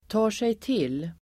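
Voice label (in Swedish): "tar sig till", Swedish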